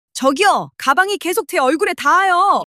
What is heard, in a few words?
anger